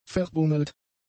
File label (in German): "verbummelt", German; adjectives